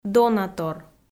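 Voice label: "донатор", Komi, female; kpv audio dict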